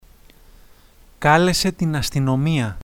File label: police, Greek